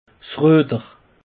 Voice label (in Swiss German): "rueder", alsace; peche